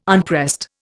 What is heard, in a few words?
"unpressed", Indian